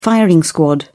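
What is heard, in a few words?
"firing squad", British English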